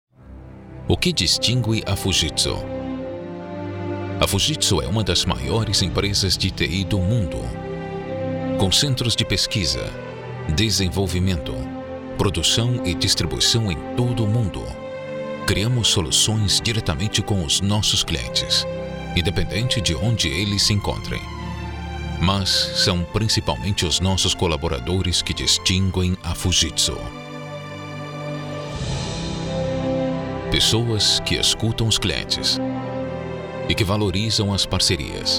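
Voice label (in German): Brazilian Portuguese Werbung Neutral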